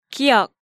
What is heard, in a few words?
"Giyeok", Korean